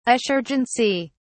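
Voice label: "assurgency", British English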